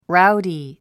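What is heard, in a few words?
"rowdy", English; ごろたん 語呂でイメージする英単語; ráudi ラウディ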